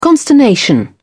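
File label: "consternation", English, British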